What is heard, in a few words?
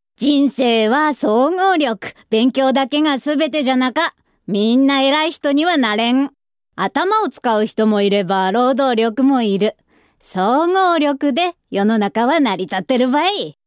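hakata dialect, female voice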